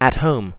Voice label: "at home", eng; sgh-d720; AnyVoice